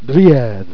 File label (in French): "driethe", Jèrriais